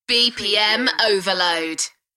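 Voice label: UK female, voice drop; Bpm overload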